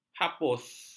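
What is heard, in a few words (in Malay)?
"hapus", Malay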